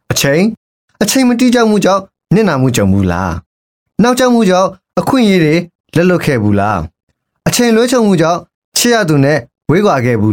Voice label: Male Burmese TVC